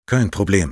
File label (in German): Sniper auto capped intelligence, de